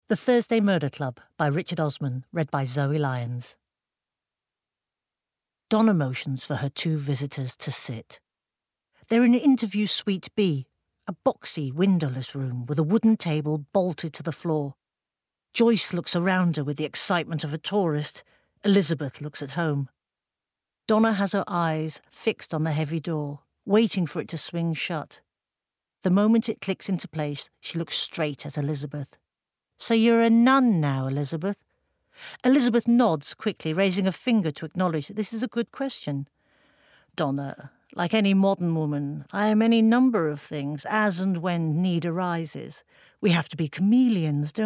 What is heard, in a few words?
Bookread; ThursdayMurderClub